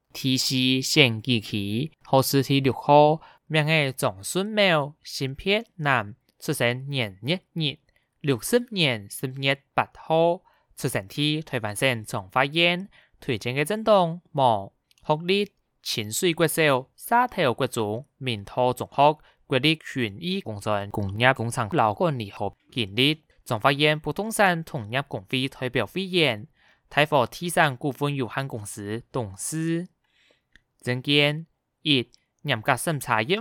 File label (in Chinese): 客語; 彰化縣; 有聲公報; 縣議員; 第四選舉區